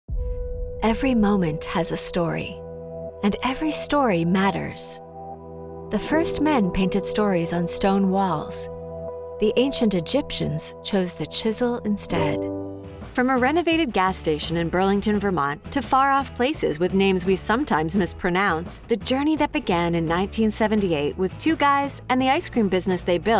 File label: english american documentaries